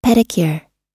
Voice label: "pedicure", us